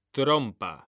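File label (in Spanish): "trompa" Spanish, male; mekanta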